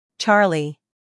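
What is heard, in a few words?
"Charlie", American English; sounds-of-american-english; everyone-can-use-english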